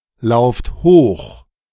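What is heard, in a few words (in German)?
"lauft hoch", German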